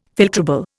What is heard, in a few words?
"filtrable", Indian